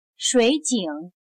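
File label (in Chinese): "水井", Chinese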